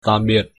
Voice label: goodbye, vietnamese

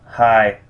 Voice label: "two" vietnamese

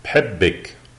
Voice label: i love you, lebanese arabic

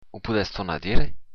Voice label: say again, occitan

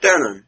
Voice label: thanks, estonian

